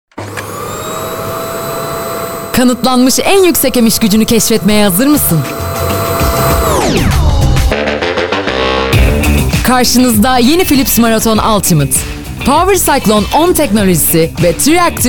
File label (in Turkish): reklam; philips